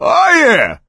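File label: positive noise, voice, male; Left 4 Dead 2; coach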